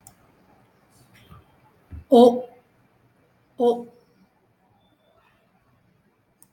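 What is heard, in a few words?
o (the short, clipped o, as in 'opaque'), Tamil, vowels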